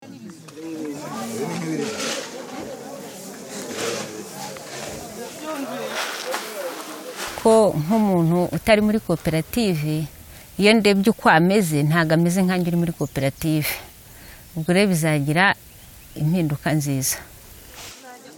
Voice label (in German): mit atmo